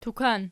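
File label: "tukan" nominative, Archi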